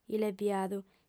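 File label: "illebiadu", Sardinian, female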